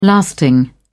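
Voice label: "lasting", British English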